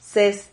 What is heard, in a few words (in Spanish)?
"ses", Silabas